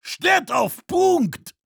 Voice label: heavy fight on cap, de

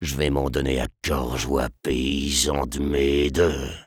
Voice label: sniper taunts, fr; "J'vais m'en donne à cœur joie, paysans d'mes deux."

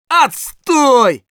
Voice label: Scout jeers ru

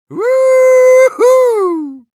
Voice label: cheers, ru; Engineer; "(радуется)"

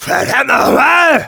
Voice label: Demoman jeers, de

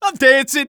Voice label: taunt conga fun; Scout

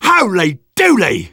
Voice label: Sniper positive vocalization

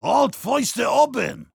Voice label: heavy melee dare, de

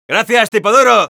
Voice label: thanks for the teleporter, es; ¡Gracias, tipo duro!; Scout

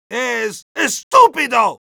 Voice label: medic taunts, es; "Es... estupido!"

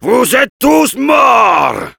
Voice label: fr; Heavy; "Vous êtes tous morts"

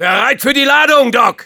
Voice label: soldier activate charge, de

